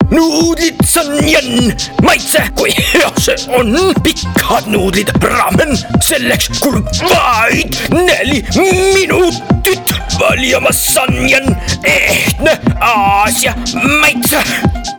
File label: ESTONIAN COMMERCIAL VOICE ACTING ad